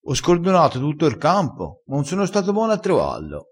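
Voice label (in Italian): "scordona", dialettale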